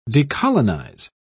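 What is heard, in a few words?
"decolonise", British English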